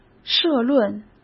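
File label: "社论", Mandarin Chinese; hsk; chinese-flashcards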